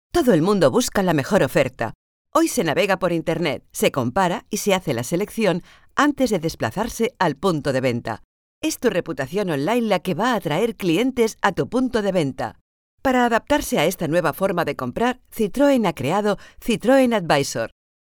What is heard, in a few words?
COMMERCIAL; citroen